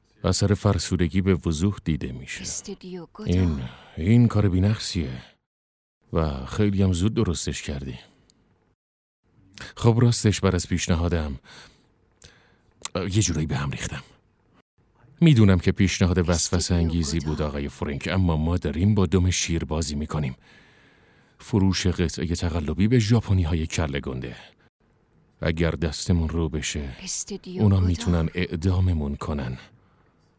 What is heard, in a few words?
dub